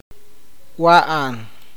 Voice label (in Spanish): "comer", awakateko